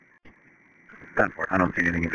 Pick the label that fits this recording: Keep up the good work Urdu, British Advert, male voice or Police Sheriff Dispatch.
Police Sheriff Dispatch